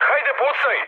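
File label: Serbian attack position mood med, speech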